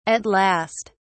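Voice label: "at last", British English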